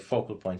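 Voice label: focL point, Standard Southern British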